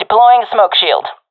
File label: throwing smoke, professional fem; vo; Counter Strike Model Pack; CS:GO